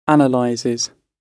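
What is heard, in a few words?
"analyses", British English, verb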